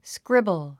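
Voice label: "scribble", English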